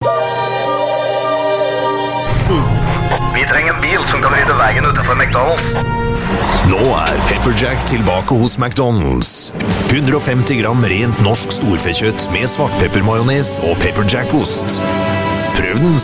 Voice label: Norwegian, Male; McDdonalds PepperJack

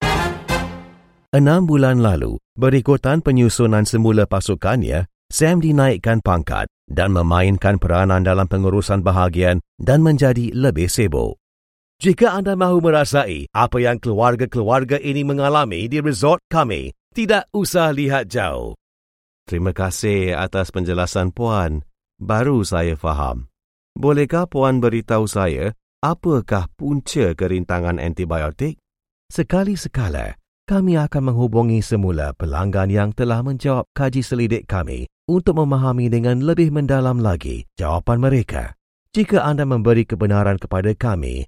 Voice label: Malay Male

